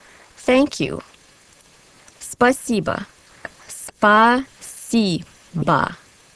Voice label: Russian Thanks